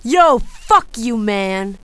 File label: cuss, female, profanity; kingpin